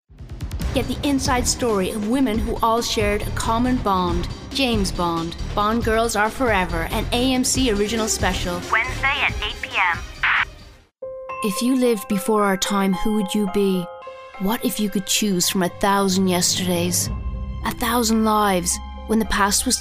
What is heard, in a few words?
mid atlantic female promo, Warm Mid Atlantic Female Voiceover